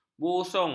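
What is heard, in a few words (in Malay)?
"busung", Malay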